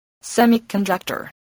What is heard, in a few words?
"semiconductor", english spelling